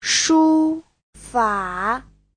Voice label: "shu1fa3", Chinese